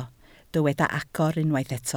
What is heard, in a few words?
"agor", Welsh